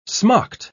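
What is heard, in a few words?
"smocked", British English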